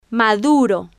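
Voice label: "maduro", Spanish, female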